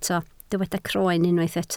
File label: "croen", Welsh